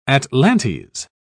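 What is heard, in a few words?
"atlantes", English, uk British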